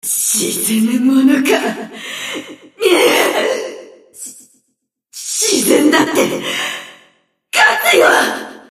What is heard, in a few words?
Enemy Voice Damaged Under Attack Debuffed; Abyssal Coral Sea Water Demon